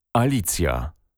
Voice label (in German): "alicija", polnische maedchennamen